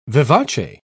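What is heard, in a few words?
"vivace", British English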